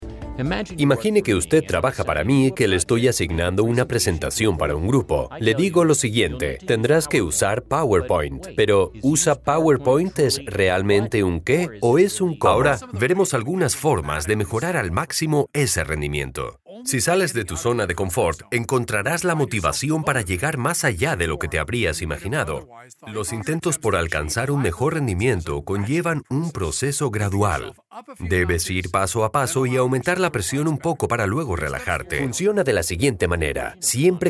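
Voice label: SPANISH Latin American ELEARNING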